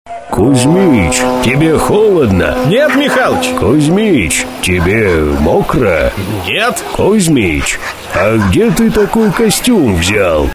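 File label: Parod, parody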